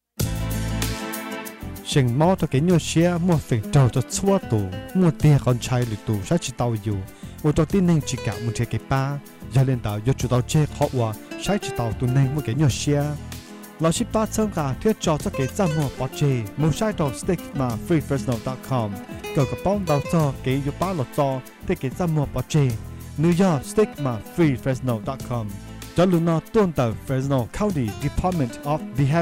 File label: Hmong Radio; Mental Health Stigma